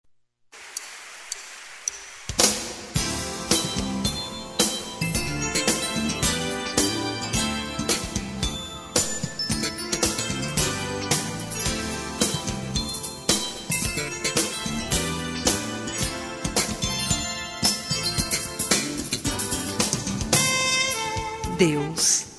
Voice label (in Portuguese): ELOGIO AO ARQUITETO FEM, Telemensagens; Telemensagens 2017